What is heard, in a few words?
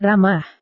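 "ramah", Indonesian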